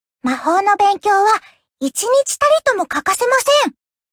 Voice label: upgrade, ja; cookierunwiki